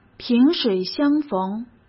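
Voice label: "萍水相逢", Mandarin Chinese; hsk; chinese-flashcards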